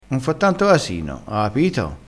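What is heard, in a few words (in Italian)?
"casino", dialettale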